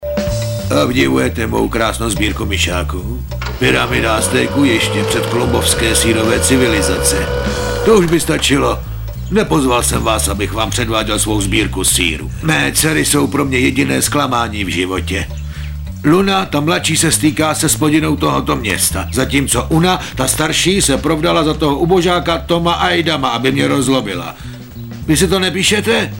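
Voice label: male, Czech, drama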